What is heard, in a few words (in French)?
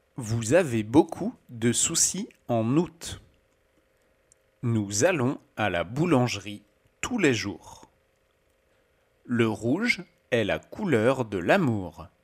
prononciation OU